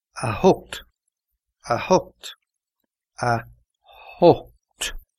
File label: eight irish